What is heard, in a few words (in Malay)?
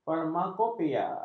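"farmakopeia", Malay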